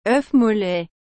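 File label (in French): "œuf mollet", French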